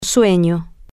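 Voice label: sleepy, Spanish, female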